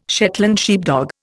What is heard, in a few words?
"Shetland sheepdog", Indian